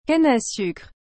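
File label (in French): "canne à sucre", French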